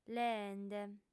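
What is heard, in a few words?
"leende", female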